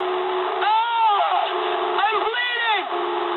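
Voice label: damaged pilot mood high, speech English; War Thunder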